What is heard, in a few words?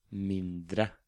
"Mindre", Norwegian